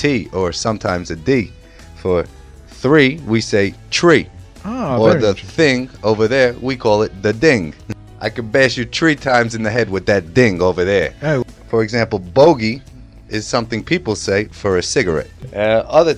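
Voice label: Accent alert new york; Hot English magazine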